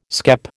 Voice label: "skep", American